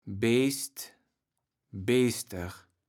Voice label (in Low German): "Beest, Beester", kaevels platt